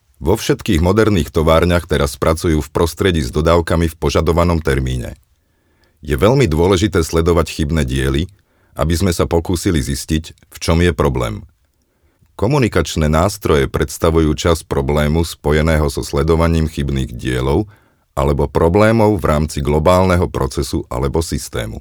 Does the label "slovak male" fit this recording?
Yes